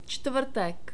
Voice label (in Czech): "čtvrtek", czech